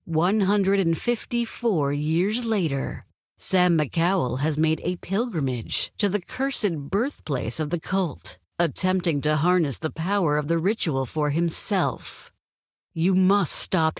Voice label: Opening Narration; The Town Line 4; Devour 2021 gamerip pc macos